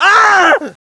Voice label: scared